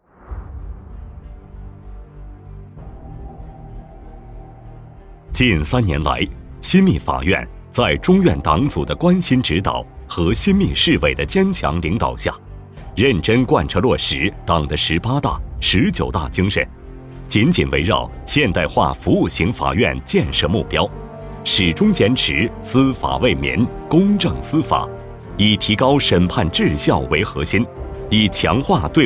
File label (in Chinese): 男【专题】; 新密法院